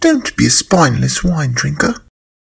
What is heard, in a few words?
dwarf woman